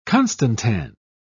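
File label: "constantan", English, British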